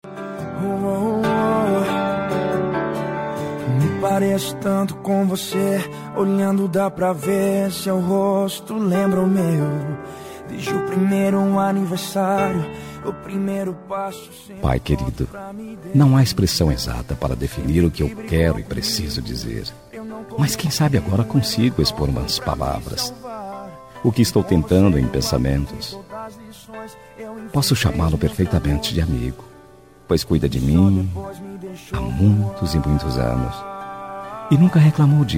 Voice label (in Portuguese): dia dos pais distante masculina